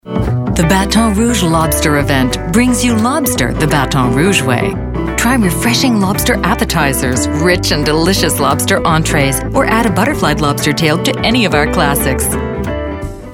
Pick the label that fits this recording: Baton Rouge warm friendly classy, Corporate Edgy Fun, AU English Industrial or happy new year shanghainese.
Baton Rouge warm friendly classy